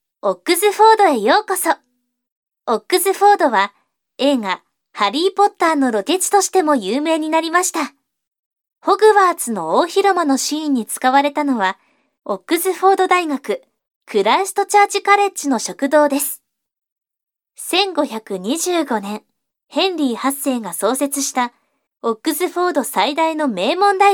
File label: Japanese Tour Guide, Japanese Female; Oxford